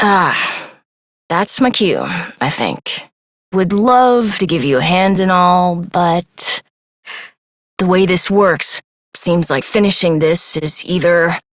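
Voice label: Adult, English; New War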